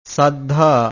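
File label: "saddhaa", Pali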